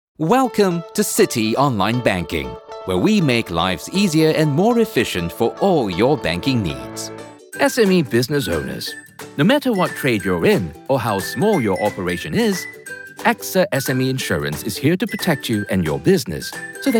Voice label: Corporate